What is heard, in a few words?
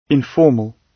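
"informal", British English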